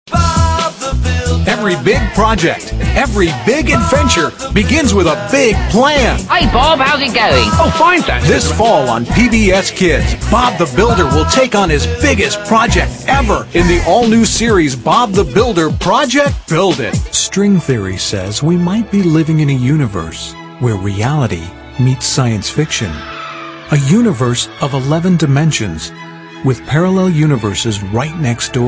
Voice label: male narration